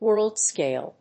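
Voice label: "Worldscale", English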